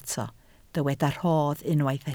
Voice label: "rhodd", Welsh

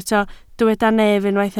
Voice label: "nef", Welsh

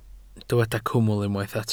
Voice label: "cwmwl" Welsh

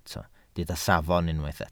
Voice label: "safon", Welsh

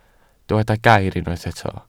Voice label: "gair", Welsh

